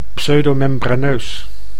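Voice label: "pseudomembraneus", Dutch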